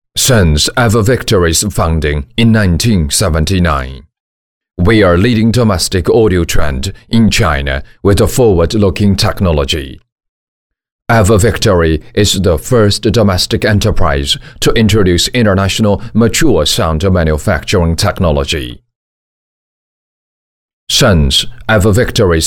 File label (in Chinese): 英语男; EVR品牌